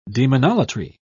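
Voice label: "demonolatry", British English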